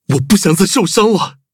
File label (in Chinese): upgrade, zh-hant; cookie run